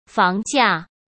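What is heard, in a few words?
"房价", Mandarin Chinese; hsk; chinese-flashcards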